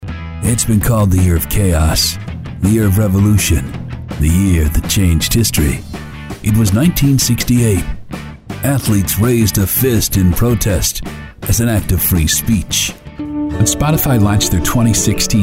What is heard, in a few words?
Narration